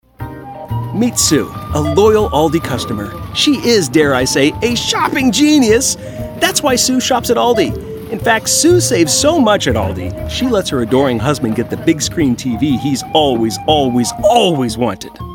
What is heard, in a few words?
Commercial